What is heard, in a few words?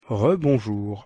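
pronunciation "re-bonjour", French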